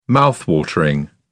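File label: "mouthwatering", British English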